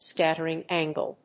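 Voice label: "scattering angle", English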